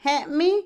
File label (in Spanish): "papel", Otomí, mujer; Habla; Vocabulary; INALI